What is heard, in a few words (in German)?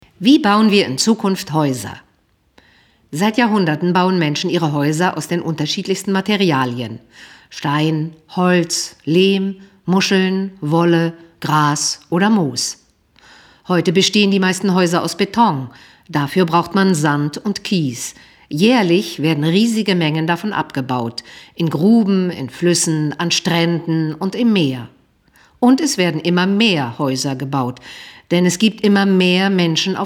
Erklaerfilm